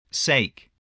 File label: "sake", English spelling